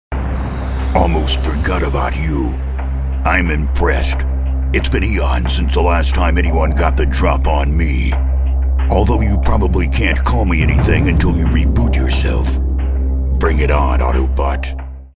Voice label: ominous